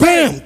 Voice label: "bam", male, Voice; ooh ahh